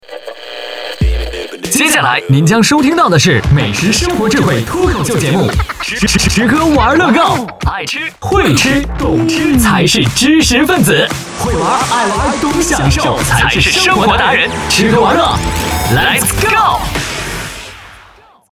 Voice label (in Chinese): 男 片花 美食生活